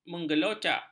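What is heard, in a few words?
"menggelocak", Malay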